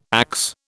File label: "axe", British